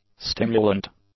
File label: "stimulant", English; adjectives